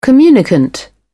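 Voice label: "communicant", British English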